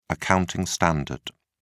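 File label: "accounting standard", British English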